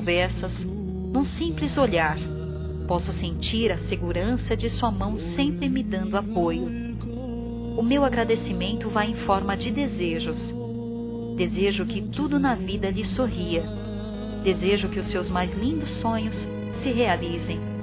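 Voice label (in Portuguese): MEU AMOR POR VOCÊ, Feminino; Telemensagens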